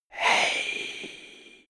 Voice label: male whisper "hey"